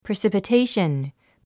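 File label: "precipitation", English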